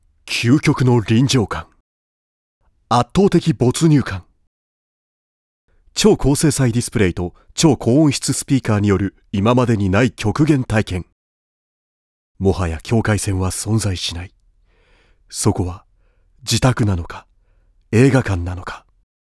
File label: seriousness solemn